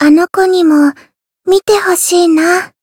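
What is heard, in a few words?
Voice Japanese; yukino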